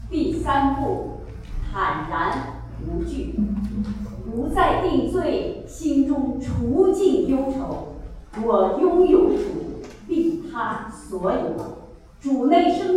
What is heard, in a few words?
recitation, choir; 2018 easter grace; bold confidence